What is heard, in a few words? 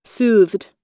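"soothed", US English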